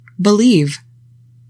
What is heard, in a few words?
"BELIEVE", english; 3000 words